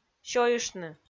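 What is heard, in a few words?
"сёйыштны", Komi, female; kpv audio dict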